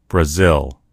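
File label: "brazil", English; gcompris; geography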